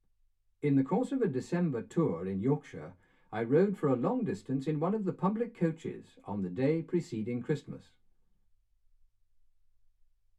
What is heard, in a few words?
male speech english